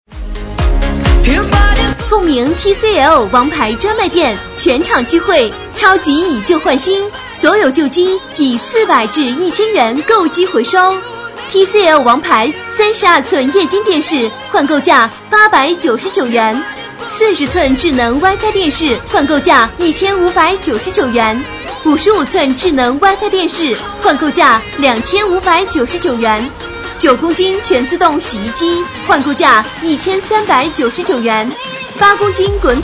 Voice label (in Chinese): 女声; TCl王牌专卖店活动